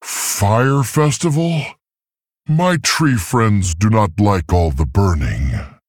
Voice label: Male Tauren FIRE FESTIVAL

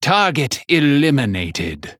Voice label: Male Night Elf Play

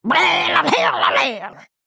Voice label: Female Murloc Play

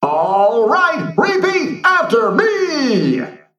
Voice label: Mech

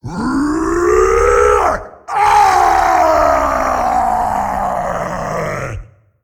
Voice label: Male Demon Death; Anetheron; Cariel

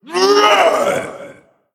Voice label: Male Skeleton Play

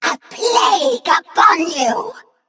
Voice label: Female Nerubian Attack

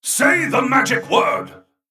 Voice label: Male Mech Play

